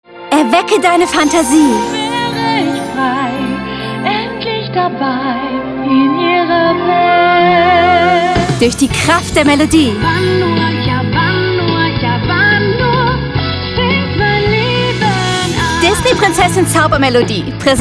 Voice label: DE, voiceover; Disney